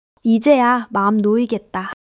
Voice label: joy; monologue